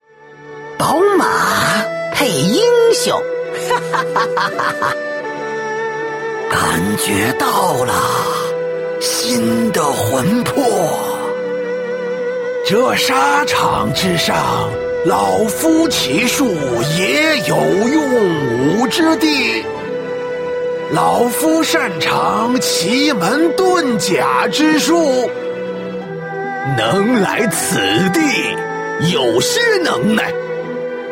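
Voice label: male middle-aged and elderly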